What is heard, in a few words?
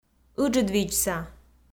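"ыджыдвидзса", Komi, female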